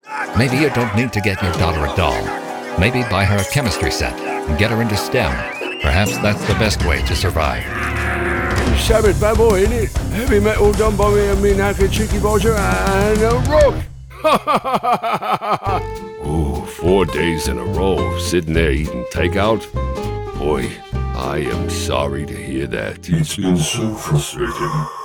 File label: Character